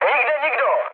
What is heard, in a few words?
chat, speech Czech; War Thunder